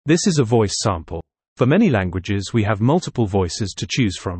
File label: en-GB News; voix générées par l’intelligence artificielle